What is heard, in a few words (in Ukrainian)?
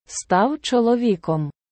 став чоловіком, Prononciation; stav cholovikom; SLAVA OTTSIU I SYNU